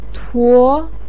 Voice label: "tuo2", pinyin

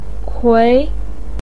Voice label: "kui2", Chinese pinyin voice

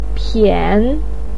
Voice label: "pian3", Mandarin pinyin; 谝

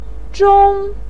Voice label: "zhōng", voice pinyin

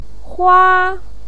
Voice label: "hua1", pinyin

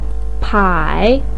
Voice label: "pai3", Chinese pinyin voice

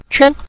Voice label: "quan", Mandarin; 圈圈; to draw a circle; cliques; circles

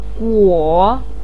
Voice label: "guo3", Chinese pinyin voice

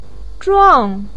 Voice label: "zhuàng", voice pinyin

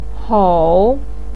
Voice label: "hou3", Chinese pinyin voice

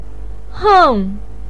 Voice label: "heng4", Chinese pinyin voice

